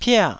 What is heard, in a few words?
"pia4", taiwanese